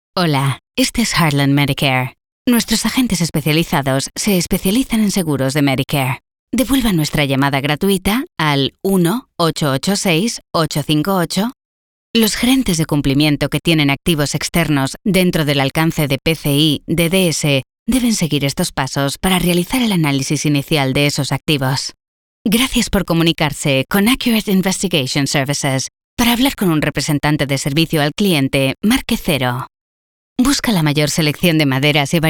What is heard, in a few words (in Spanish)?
Corporativa